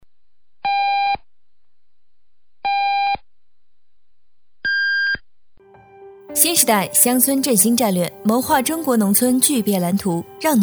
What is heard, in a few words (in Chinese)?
女 新闻播报